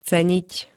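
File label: "ceniť", Slovak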